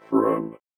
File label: VOICEFX ELCTR 140; Techno2